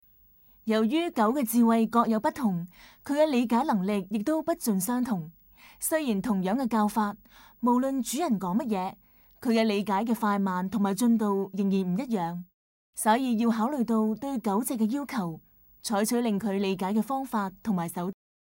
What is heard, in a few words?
CANTONESE female